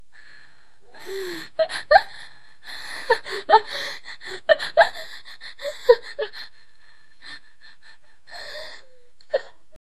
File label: mexican 13 year old boy